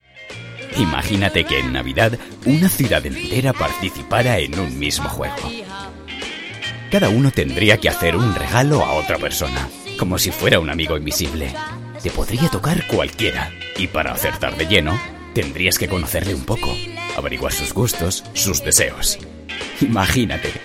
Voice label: commercial